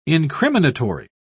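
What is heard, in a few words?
"incriminatory", English, British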